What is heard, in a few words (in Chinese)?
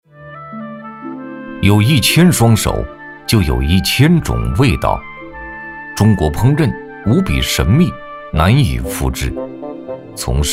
男100号-记录-舌尖体样音, 国语男声配音